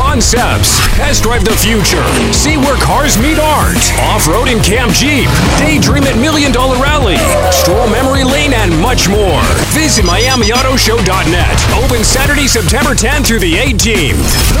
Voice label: Hard Sell Auto Show and Dealer